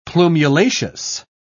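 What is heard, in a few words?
"plumulaceous", British English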